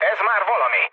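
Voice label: Hungarian trick mood low, speech; War Thunder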